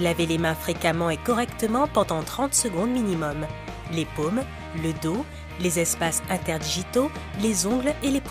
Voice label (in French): annonce préventive en entreprise se protéger du coronavirus en entreprise; Covid-19